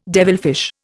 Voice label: "devilfish", Indian

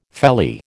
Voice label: "felly", American

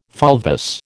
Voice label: "fulvous", American

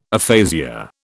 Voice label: "aphasia", British

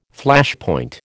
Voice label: "Flashpoint", American

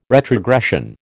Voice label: "retrogression", American

